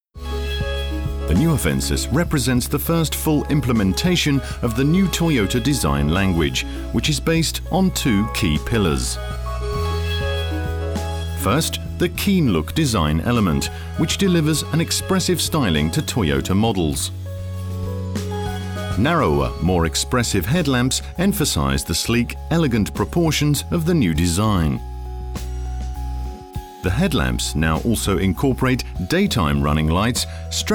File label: corporate; Toyota Avensis